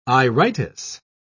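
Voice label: "iritis", British English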